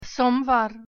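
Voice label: "ponedelnik" Russian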